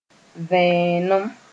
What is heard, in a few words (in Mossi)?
"vẽenem", moore